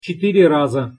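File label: "cetyre raza", Russian pronunciation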